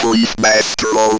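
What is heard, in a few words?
ROBVOX, robot voice; E-Lab; X Static Goldmine 1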